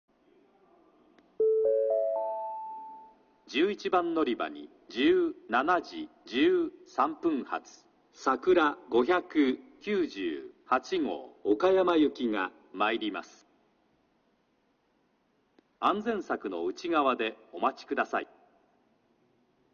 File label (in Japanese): 11番のりば予告放送, 新幹線ホーム; 新鳥栖; さくら598号 岡山行き